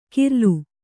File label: "ಕೀರ್ಲು", Kannada